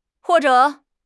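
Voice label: "huo4 zhe3", Chinese; HSK3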